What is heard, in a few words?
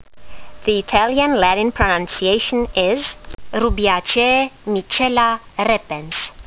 latin; Mitchella repens